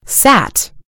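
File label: "sat", American English; gcompris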